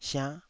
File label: "siannh5", taiwanese